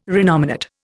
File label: "renominate", Indian